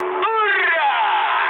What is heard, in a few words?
player killed enemy, mood high, speech ru